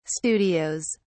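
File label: "studios", English, uk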